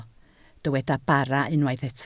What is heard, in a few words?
"bara", Welsh